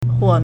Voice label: "huo4", Chinese